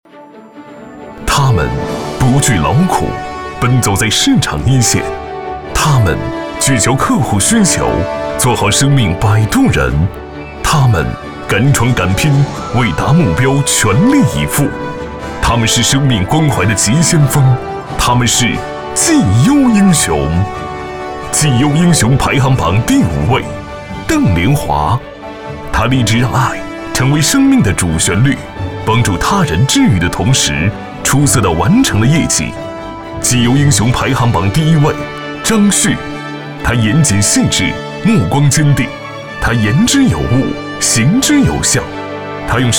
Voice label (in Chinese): 男 大气颁奖; 绩优英雄